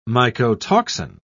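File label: "mycotoxin", British English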